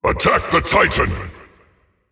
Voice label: vox attack m; smite; atlas

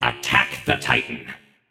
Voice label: VOX Attack M; smite; achilles

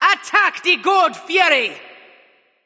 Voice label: vox attack; smite; izanami

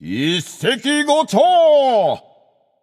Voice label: alert notify kill, japanese; caster

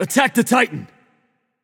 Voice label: vox attack; smite; mercury

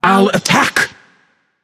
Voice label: vox self attack; smite; arachne

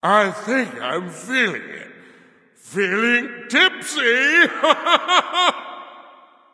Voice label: joke tipsy; bacchus

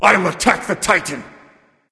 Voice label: vox self attack m; smite; anubis

